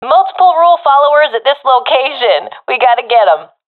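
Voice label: sees multiple enemies, professional female; vo; agents; Source Model Packs; Counter Strike Model Pack; CS2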